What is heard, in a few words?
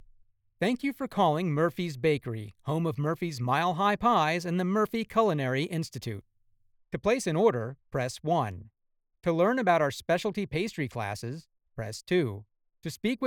IVR